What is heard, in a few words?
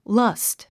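"lust", English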